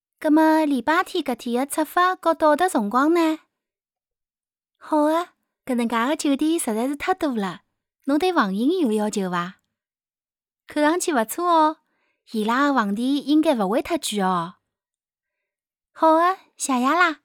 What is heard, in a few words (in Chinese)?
沪 智能交互语音